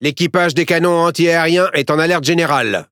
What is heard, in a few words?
Speech Consumable AAC ready, FR; World Of Warships